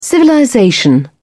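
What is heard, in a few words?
"civilization", British English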